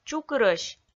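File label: "чукырӧсь", Komi, female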